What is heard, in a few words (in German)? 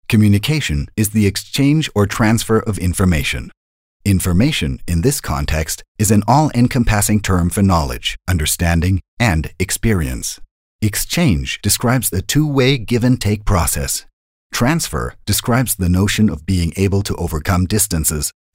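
English US Neutral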